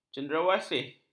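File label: "cenderawasih", Malay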